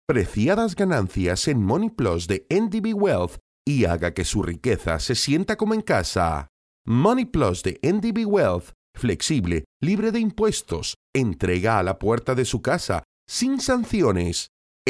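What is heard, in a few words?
Spanish Spain, male, voice over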